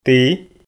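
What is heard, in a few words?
"ті", Komi, male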